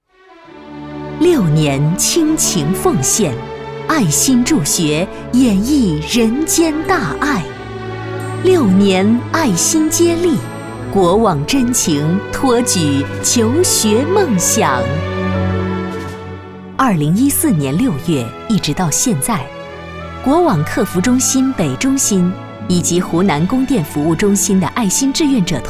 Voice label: 女 专题 助学纪实 大气深情

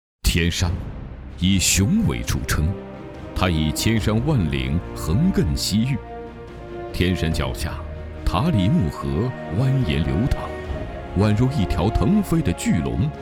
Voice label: 男 纪录片; 维稳戍边耀天山

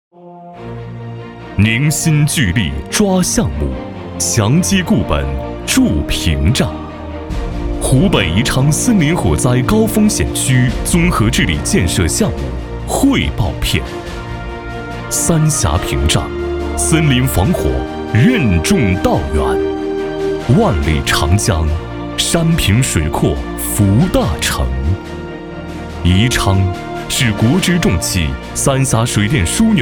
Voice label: 男 党政专题片

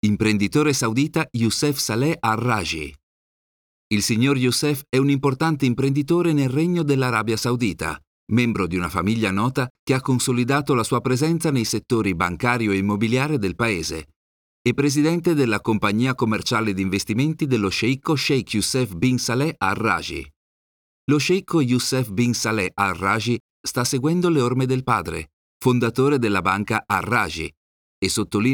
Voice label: 意大利语男 叙述干音

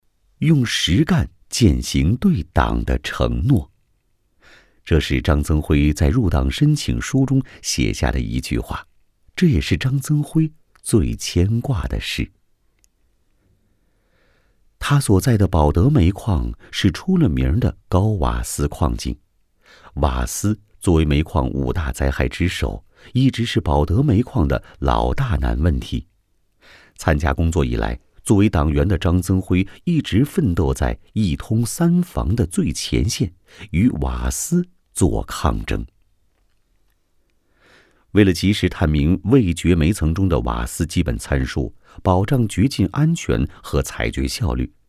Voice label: 男 人物专题

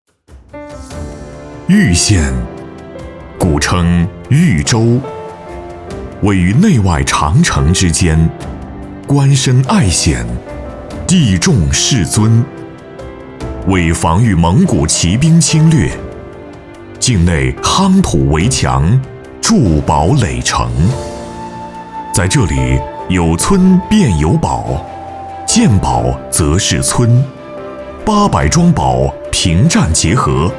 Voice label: 男 专题宣传 历史古风

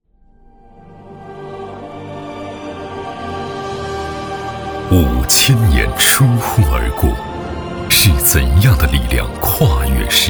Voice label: 男 专题 形象 片 历史厚重; 桐庐

